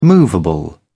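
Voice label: "movable", British English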